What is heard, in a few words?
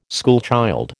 "schoolchild", American